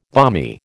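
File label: "balmy", American English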